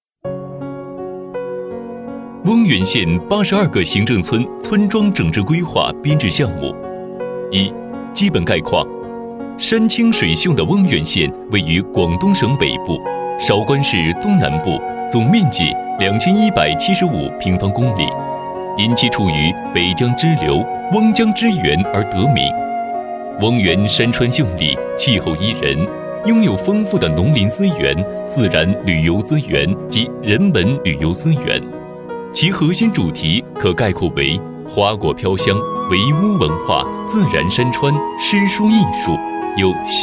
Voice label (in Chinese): 男 宣传; 翁源县